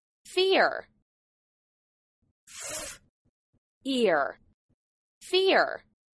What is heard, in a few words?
"fear", English